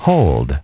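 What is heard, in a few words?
"hold", English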